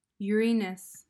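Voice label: "uranus", English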